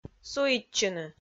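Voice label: "суитчыны", Komi-Zyrian, female; audio dict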